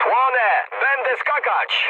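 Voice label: on fire, mood med, Polish speech; War Thunder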